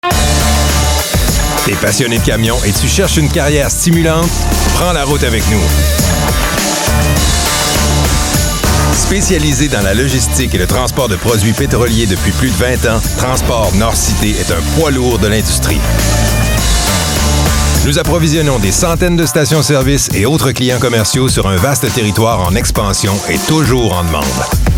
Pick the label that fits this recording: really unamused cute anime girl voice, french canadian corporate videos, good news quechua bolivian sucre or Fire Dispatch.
french canadian corporate videos